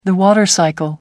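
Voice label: "water cycle", British English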